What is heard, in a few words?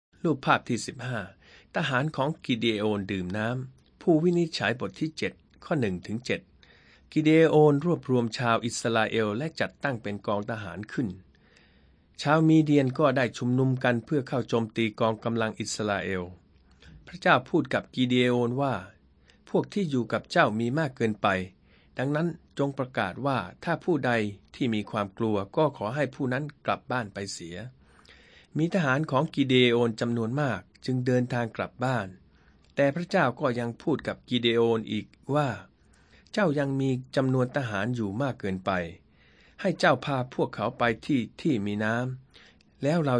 thai central